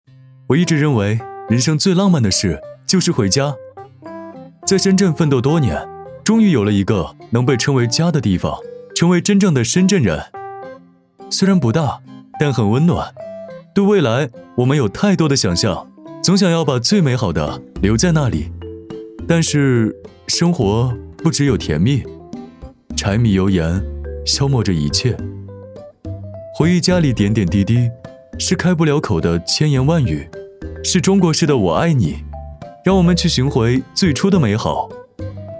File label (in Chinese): 温馨欢乐; 海尔专卖店将爱带回家